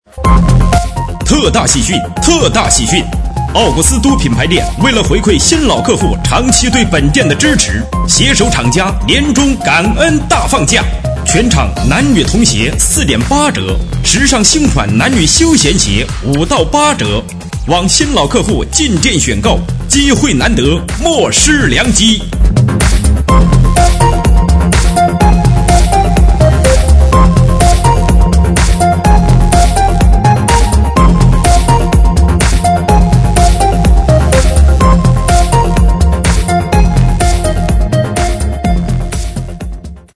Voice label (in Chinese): 促销 鞋店; 奥古斯都